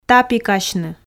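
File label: "тапикасьны", Komi, female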